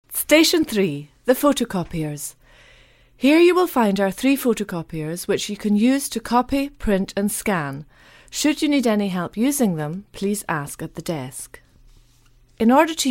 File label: audioguide english; bibliothek; station 1